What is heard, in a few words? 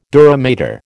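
"dura mater", American English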